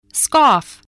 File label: "scoff", english spelling